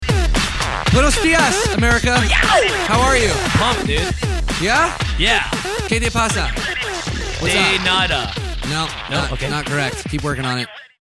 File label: spanish accents